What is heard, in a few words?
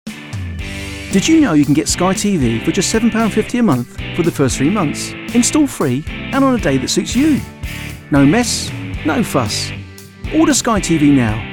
Commercials